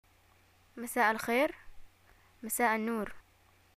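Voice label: greeting evening, Yemeni Arabic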